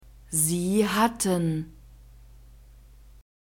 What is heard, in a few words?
"sie hatten", German; verbs past tense irregular